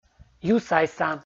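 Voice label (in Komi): "юсайса" Komi, male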